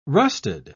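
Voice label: "rusted", British English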